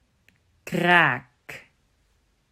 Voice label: "Kraak", Dutch

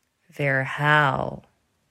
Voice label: "Verhaal", Dutch

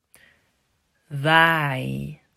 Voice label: "Waai", Dutch

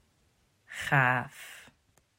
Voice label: "Gaaf", Dutch

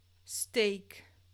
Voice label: "steek", Dutch; klankstabiliteit

